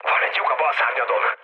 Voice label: left wing, speech hun; War Thunder